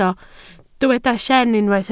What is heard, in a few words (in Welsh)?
"lleen" Welsh